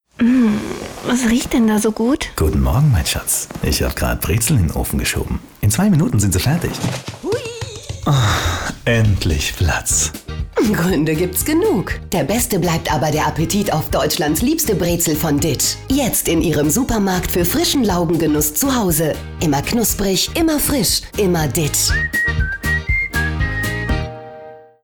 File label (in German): Funkspot schlaefrig; radiowerbung; Ditsch